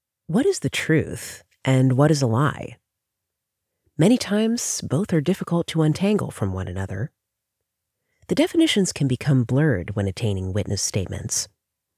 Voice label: eLearning; eyewitness accounts